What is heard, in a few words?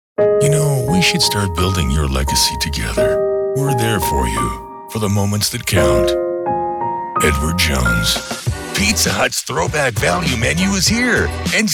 male commercials